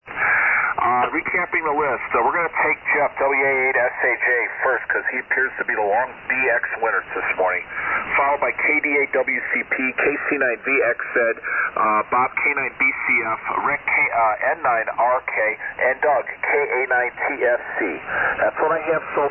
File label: SSB Net Check Ins